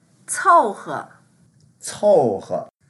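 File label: "cou4he2", Mandarin Chinese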